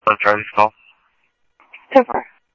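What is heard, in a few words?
police radio dispatch, Scottsdale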